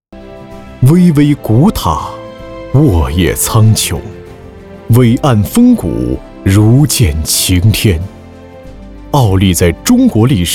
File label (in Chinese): 男 城市宣传片; 美丽宁城; 美好宁果